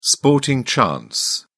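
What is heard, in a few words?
"sporting chance", British English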